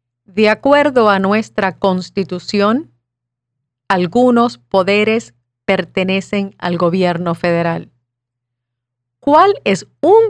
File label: Spanish